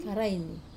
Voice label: "karaini", bee hive, Wamesa

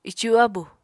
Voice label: "icu-abu", Apatani

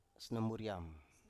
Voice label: "snomuriam", Biak

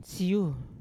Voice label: "syu" sharp, Yawa

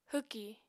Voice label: "hwki", Apatani

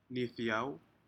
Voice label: "nidou", Aneityum; shellfish

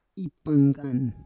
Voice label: "ipanggan", Roon

